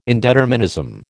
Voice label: "indeterminism", American